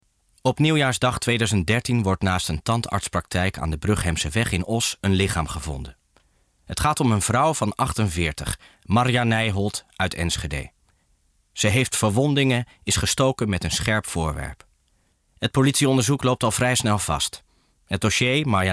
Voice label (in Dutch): Stemdemo Podcast; Nieuwjaarsmoord